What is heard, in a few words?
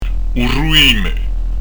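"u ruime", Corsican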